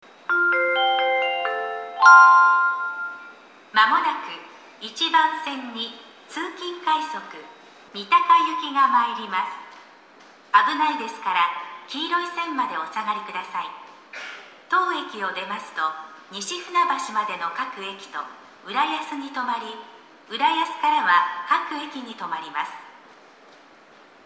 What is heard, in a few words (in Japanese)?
駅放送, 1番線 接近; 2014年2月28日頃より接近メロディ付きの新放送に切り替わりました。; toyo-katsutadai